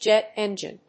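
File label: "jet engine", English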